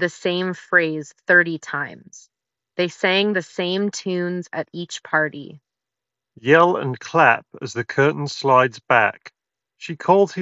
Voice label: Sony WF-C710N ideal